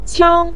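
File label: "qiang", Mandarin Chinese syllable, neutral tone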